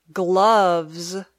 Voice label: "gloves", English

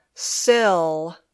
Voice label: "sill", English

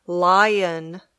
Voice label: "lion", English

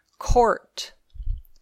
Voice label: "court", English

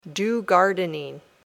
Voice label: "do gardening", English

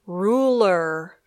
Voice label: "ruler", English

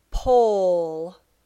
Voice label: "pole", English

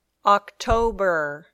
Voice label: "October", English

